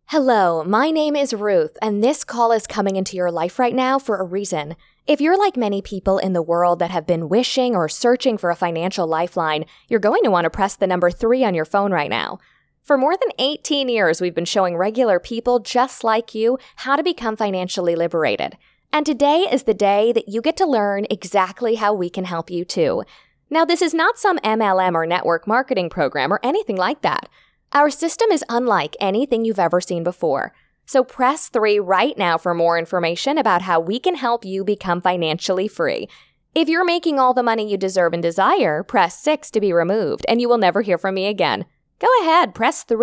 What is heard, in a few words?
Outgoing Voice Broadcast Message